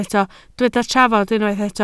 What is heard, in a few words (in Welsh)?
"trafod", Welsh